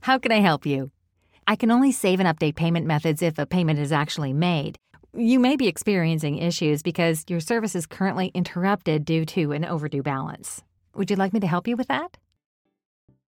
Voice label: Real Person IVR